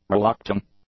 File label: "relucting", English; adjectives